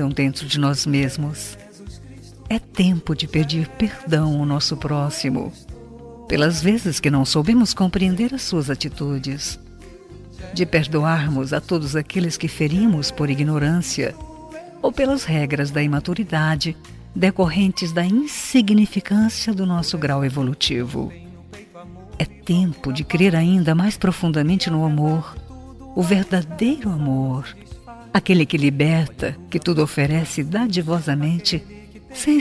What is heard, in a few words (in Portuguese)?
NATAL PARA VOVÓ FEM; Natal e Ano novo